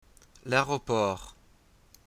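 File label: "airport", French; tourism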